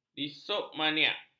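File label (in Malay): "dipsomaniak", Malay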